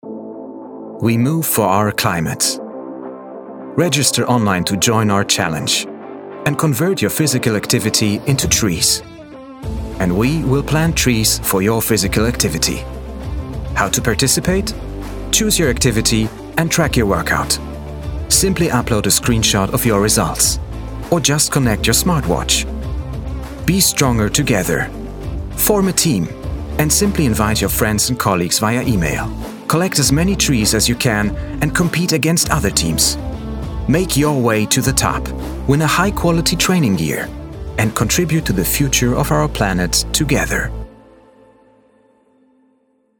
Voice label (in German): DE Imagefilm; ViMove